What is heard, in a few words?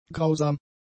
"grausam", German; adjectives